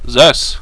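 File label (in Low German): "soess", Plattdüütsch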